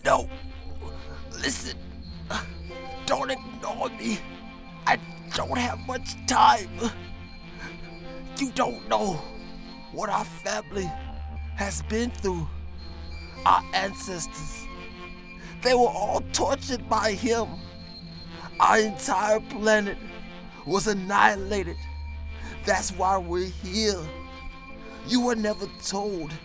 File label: Game audio